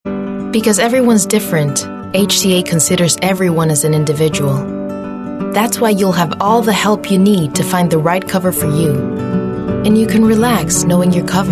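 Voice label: US Commercial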